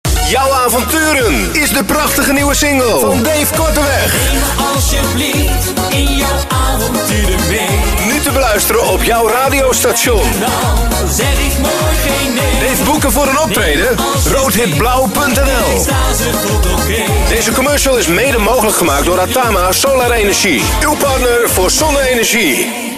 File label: Commercial